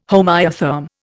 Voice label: "homoiotherm", Indian

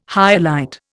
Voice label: "hyalite", Indian

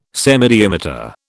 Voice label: "semidiameter", British

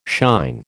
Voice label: "shine", American

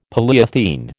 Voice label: "polyethene", American

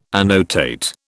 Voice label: "annotate", British

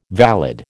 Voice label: "valid", American

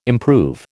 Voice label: "improve", American

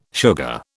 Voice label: "sugar", British

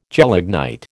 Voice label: "gelignite", American

